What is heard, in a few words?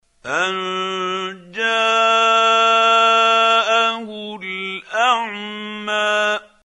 quran, Hussary, tafseer; Abasa